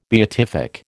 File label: "beatific", American